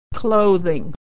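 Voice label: "clothing", American English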